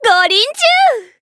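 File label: Voice Japanese; pom; finish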